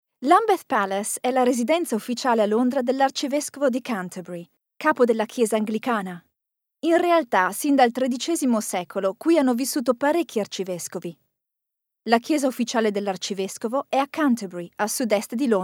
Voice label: italian narration